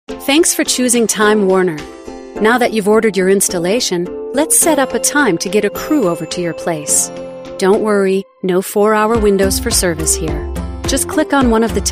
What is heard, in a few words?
Explainer